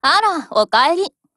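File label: HELLO, Japanese, Voice; GF Alarm; M2HB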